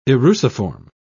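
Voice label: "eruciform", British English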